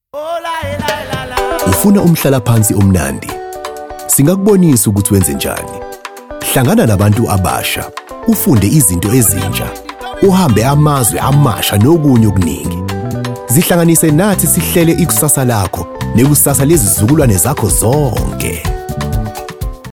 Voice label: Zulu KZN

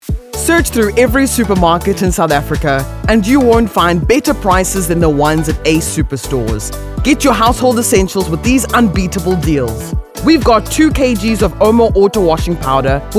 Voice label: Hard Sell Retail

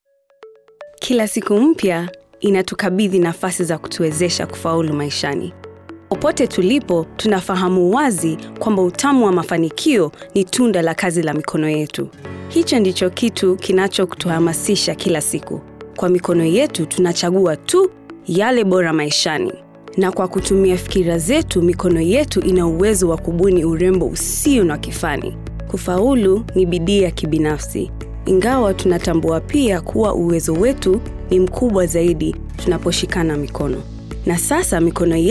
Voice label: Retirement Kenyan Swahili

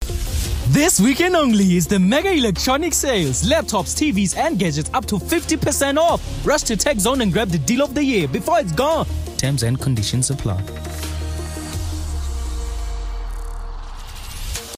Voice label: Hardsell

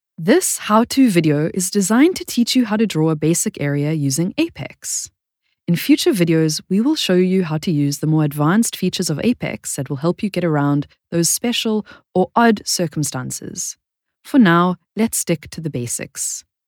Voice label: US Corporate E-Learning

